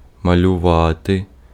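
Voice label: Ukrainian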